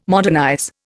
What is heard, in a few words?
"modernize", Indian